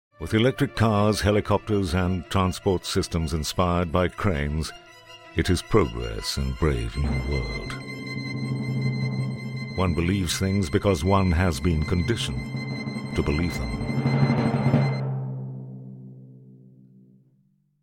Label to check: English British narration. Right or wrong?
Right